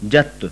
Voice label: voiced palatal, sindhi